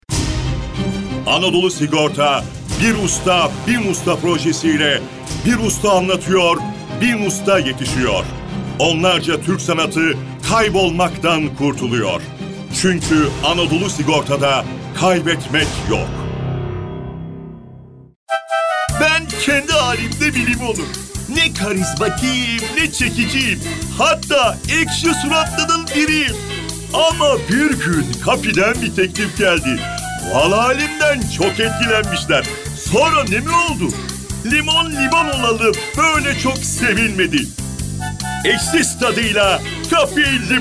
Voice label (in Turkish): erkek